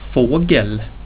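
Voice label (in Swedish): "fågel", swedish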